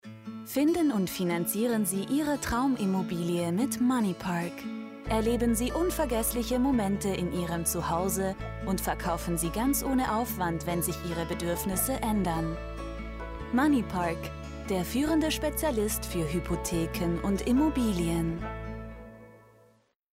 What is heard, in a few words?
german narration